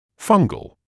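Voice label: "fungal", British English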